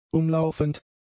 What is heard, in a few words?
"umlaufend", German; adjectives